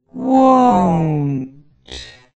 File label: "won't", USA, slow